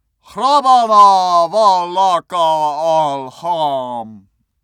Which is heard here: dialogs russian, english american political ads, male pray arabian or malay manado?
male pray arabian